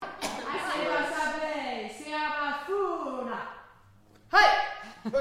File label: Mangwala A Chechi Spoken End